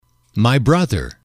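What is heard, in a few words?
my brother, male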